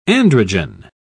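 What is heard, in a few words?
"androgen", English, British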